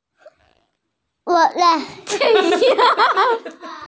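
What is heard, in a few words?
"fei wo", Mandarin Chinese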